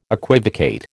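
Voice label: "equivocate", American English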